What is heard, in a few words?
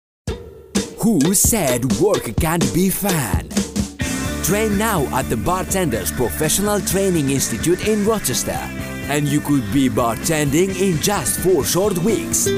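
Commercial English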